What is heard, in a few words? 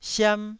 "siam1", taiwanese